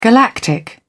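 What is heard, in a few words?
"galactic", British English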